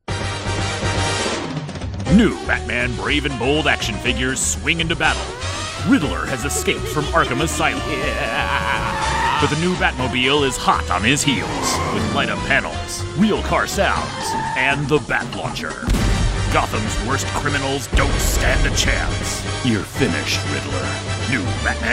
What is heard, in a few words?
voiceover video game showreel